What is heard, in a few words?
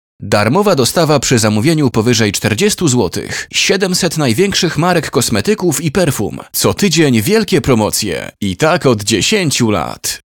radio